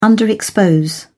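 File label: "underexpose", British English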